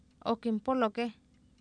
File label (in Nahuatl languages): "ookimpOlokEh", Oapan, female; Oapan minimal pairs 1998